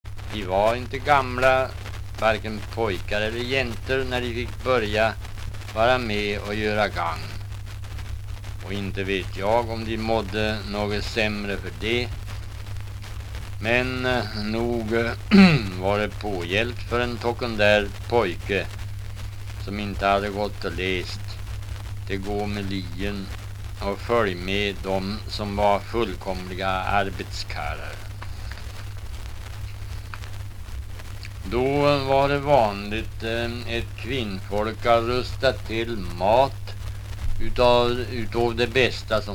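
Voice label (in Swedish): Riala Uppland, dialekter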